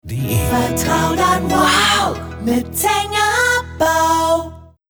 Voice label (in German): JINGLES CLAIMS